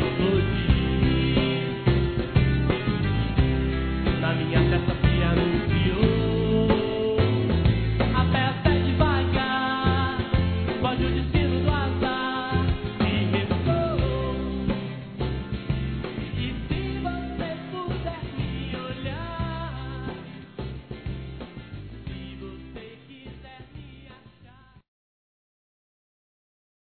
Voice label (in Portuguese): NEUTRO F, Páscoa, fem; Telemensagens